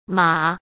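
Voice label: "码", Mandarin Chinese; chinese flashcards; hsk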